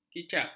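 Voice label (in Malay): "kicap", Malay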